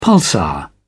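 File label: "pulsar", British English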